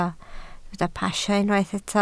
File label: "pasio", Welsh